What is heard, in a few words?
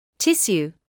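"tissue", British English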